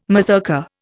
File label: "mazurka", Indian